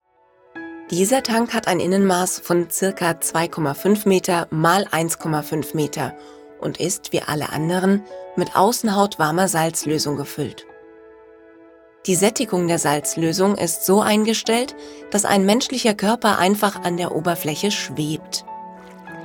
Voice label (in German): Doku